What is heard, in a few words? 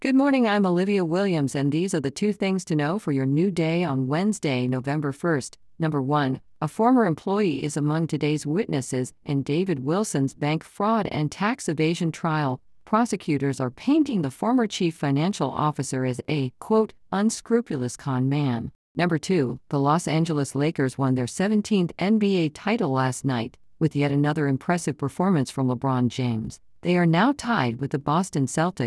improved newscaster female